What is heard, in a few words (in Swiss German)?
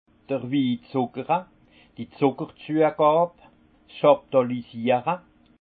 "wizuckere", alsace; vin; chaptalisation